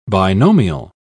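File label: "binomial", English, uk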